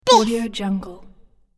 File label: little superhero says "biff"